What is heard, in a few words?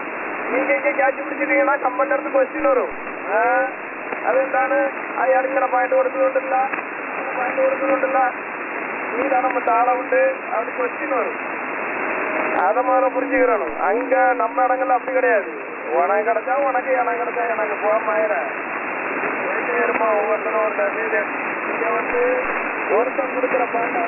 Nigerian fishermen traffic on 21002.2 kHz USB